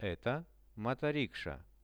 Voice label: "eto motoriksha", Russian; Это моторикша.; transport; visual-dictionary

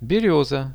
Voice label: "berioza", Russian; берёза; plants; RUSSIAN VISUAL DICTIONARY

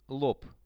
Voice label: "lob", Russian; лоб

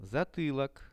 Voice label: "zatylok", Russian; затылок; Это затылок.; visual dictionary

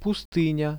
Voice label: "pustynia", Russian; пустыня; nature; priroda; RUSSIAN VISUAL DICTIONARY

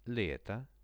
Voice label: "leto", Russian; vremena goda; лето; Это лето.

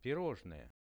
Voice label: pirozhnoe, Russian; products

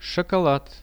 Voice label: "shokolad", Russian; шоколад; Это шоколад.; products

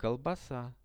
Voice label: "kolbasa", Russian; колбаса; Это колбаса.; products

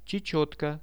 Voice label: "chechetka" Russian; чечётка; birds; Какая это птица?

